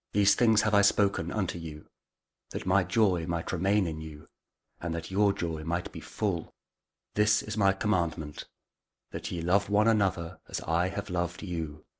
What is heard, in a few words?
prayer for joy kjv audio bible; king james version; pray with jesus simple prayer